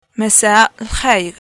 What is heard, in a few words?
greetings good evening, arabic levantine